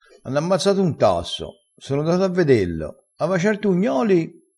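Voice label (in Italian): "ugnolo", dialettale, crespinese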